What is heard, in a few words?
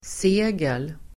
"segel", Swedish